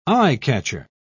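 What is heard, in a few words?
"eye-catcher", British English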